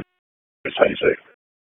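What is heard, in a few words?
Police Northeast District Disp, radio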